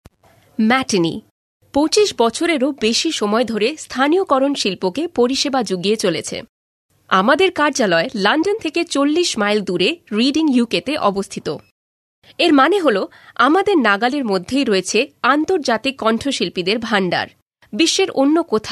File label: Female, Bengali; voice over